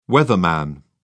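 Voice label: "weatherman", British English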